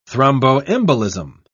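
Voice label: "thromboembolism", British English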